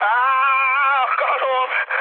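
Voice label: Hungarian damaged pilot mood high, speech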